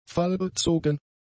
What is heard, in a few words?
"fallbezogen", German; adjectives